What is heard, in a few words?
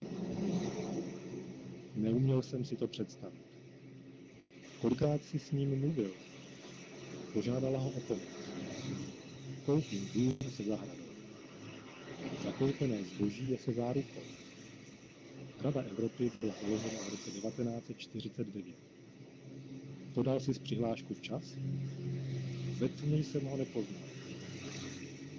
Road Noise Czech Male Handset; AMR-WB; 150ms Delay - 10ms Jitter - 1% Loss